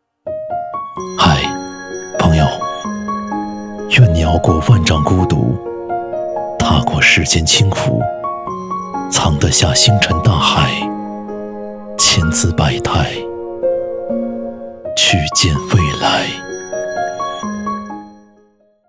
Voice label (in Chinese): 男 抖音旁白; 愿你熬过万丈孤独